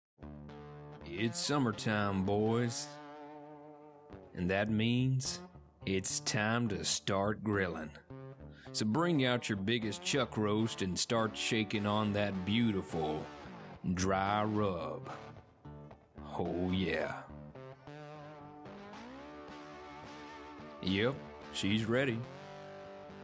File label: VO, BBQ sauce commercial